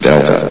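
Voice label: "delta", male synthesized voice; vox; source engine; hl1; video games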